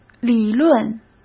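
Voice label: "理论", Mandarin Chinese; hsk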